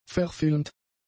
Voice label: "verfilmt", German; adjectives

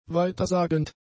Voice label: "weitersagend", German; adjectives

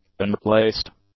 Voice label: "unreplaced", english; adjectives

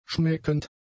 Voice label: "schmeckend", German; adjectives

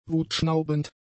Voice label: "wutschnaubend", German; adjectives

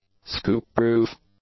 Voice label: "scoop-proof", English; adjectives

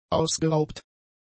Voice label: "ausgeraubt", German; adjectives

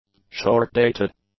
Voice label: "short-dated", English; adjectives

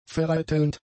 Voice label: "vereitelnd", German; adjectives

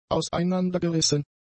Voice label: "auseinandergerissen", German; adjectives

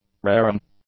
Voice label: "raring", English; adjectives

